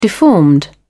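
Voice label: "deformed", British English, dictionary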